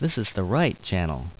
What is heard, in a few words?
Right Speaker Voice